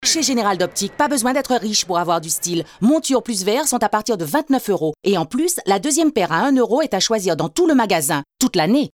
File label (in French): léger accent créole; GENERAL DOPTIQUE